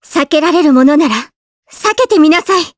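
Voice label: Vox Skill, Japanese; Kirze; kings raid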